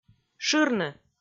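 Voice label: "шырны", Komi, female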